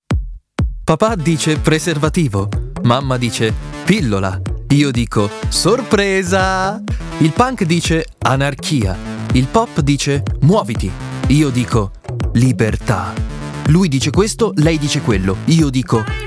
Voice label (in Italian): spot; seat arona